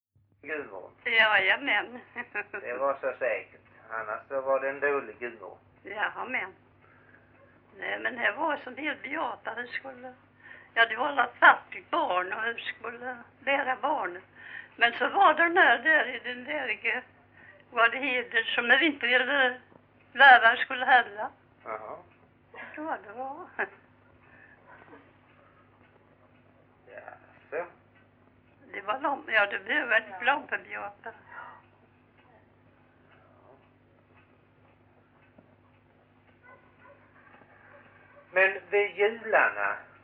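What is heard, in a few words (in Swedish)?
Grimeton Halland, dialekter; Folkminnen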